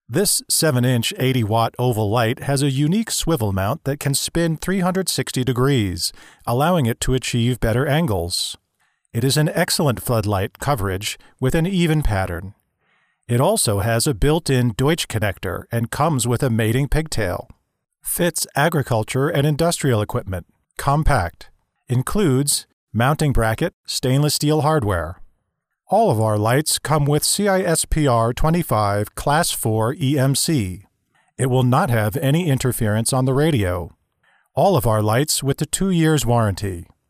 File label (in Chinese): 外籍男英 外语 美式英语 产品说明